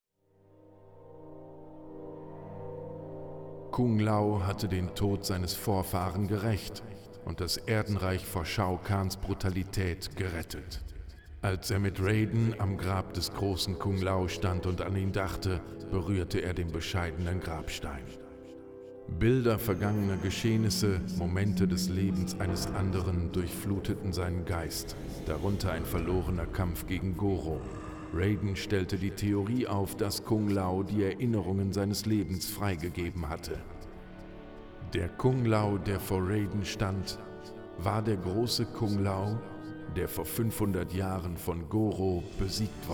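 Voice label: music voice over endings German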